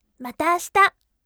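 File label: voice mata ashita bright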